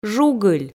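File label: "жугыль", Komi, female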